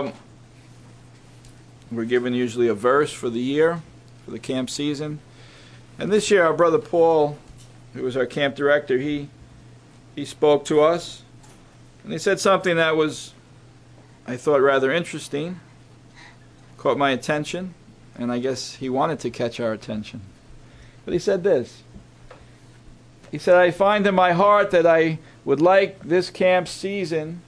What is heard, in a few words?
Message- Pentecostal meetings